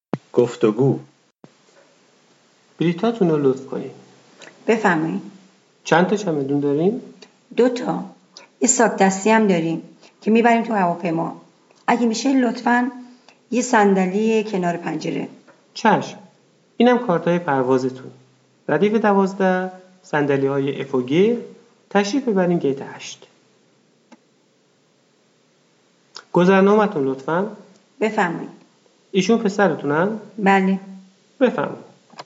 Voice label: Dialog, persian